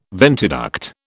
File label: "ventiduct", British